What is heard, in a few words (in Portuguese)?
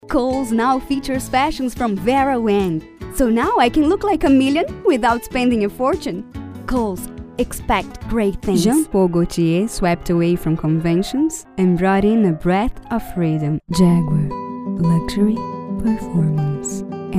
Inglês